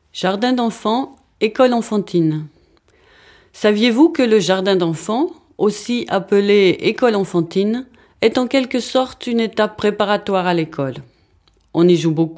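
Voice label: French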